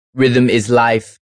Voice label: Rhythm Is Life, Male; Radio; Voice Box

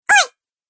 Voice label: "Oi" Cartoon Game Voice

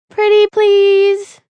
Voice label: "Pretty please", Female, child; Talking Sound Effects

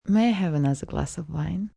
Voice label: Glass Of Wine, female; Restaurant, bar; Talking

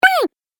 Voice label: Cartoon Game Voice "Bang"

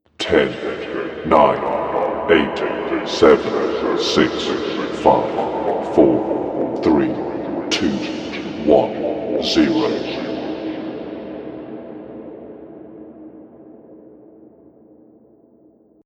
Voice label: Deep Countdown 10-1 Delay, recorded voice

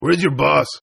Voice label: Toughguy Boss English, Tough Hispanic Male; Attitude Sound Effects

